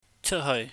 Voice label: "cheutheui", cantonese